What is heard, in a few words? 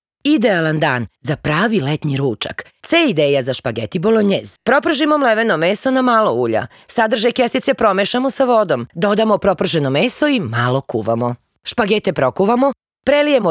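Serbian, female, commercial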